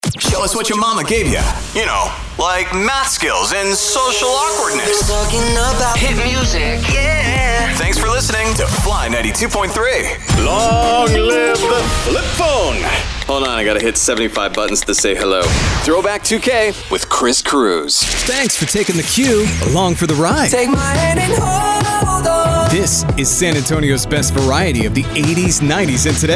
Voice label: VO Radio Imaging

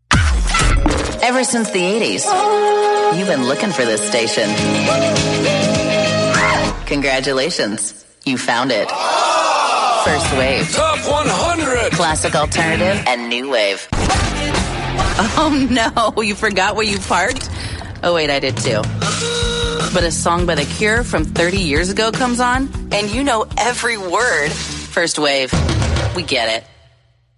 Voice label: Imaging; 1st Wave Sirius XM; First Wave Imaging Demo

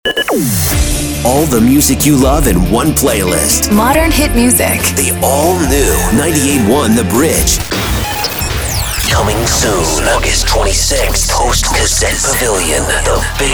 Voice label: Hot AC Imaging

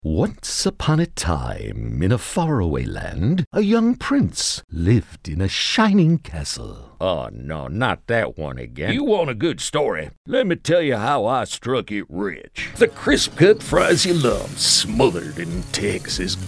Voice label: Character Voice

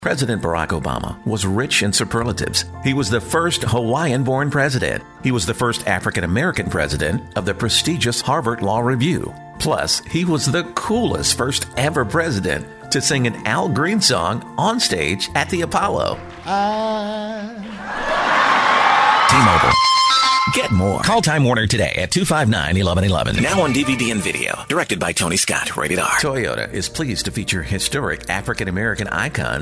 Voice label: dry

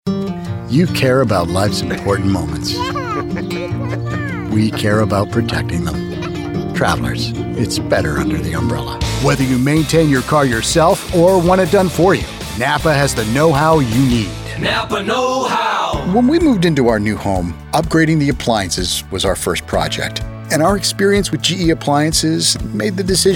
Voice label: Commercial

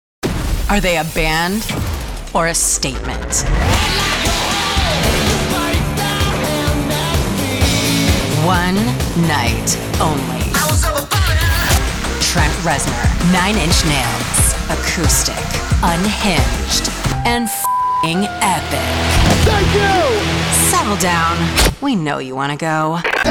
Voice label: Radio Imaging

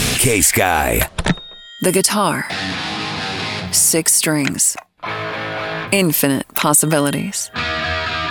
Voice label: imaging rock

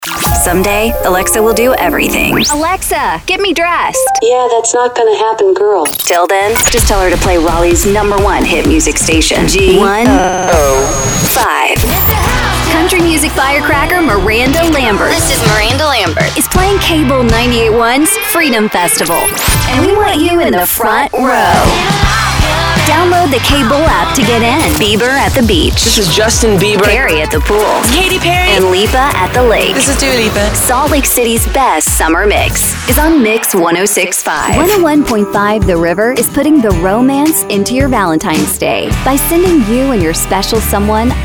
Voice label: Radio Imaging

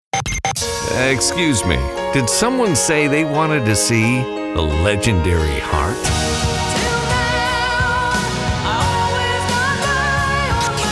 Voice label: Radio Imaging Voice Over AC

